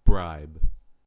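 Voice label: "bribe", US English; pronunciations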